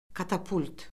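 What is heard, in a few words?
"katapult", Azerbaijani; Orfoqrafiya Lüğəti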